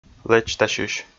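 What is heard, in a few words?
"лэчтасьысь" Komi, male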